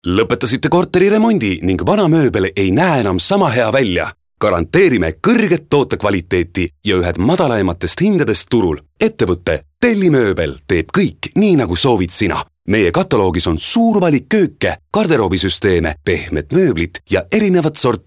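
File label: Estonian male Active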